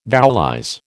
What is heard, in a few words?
"vowelize", American